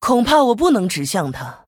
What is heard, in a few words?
Female Human ERROR TARGET, zh